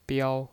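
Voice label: "biao", Mandarin Chinese, first tone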